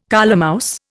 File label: "colemouse", Indian